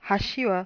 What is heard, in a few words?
"hashi" bridge